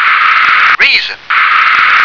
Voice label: "raisin", English